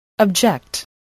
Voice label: "object", voice, spelling